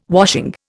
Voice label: "washing", Indian

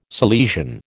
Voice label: "Salesian", American

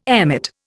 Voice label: "emmet", Indian

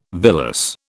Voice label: "villus", British

